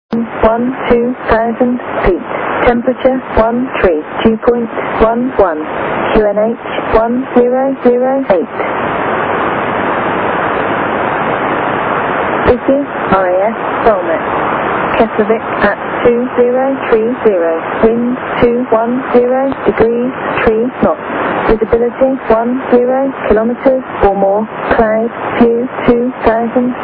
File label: raf volmet 11253